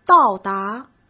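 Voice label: "到达", Mandarin Chinese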